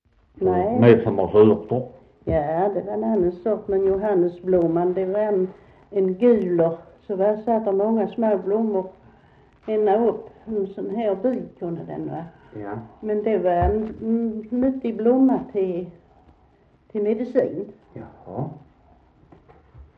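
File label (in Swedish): Västra Torup Skåne, dialekter; Folkminnen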